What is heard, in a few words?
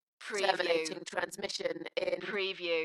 UK female, Terminating transition in, Dalekness; beanstalk audio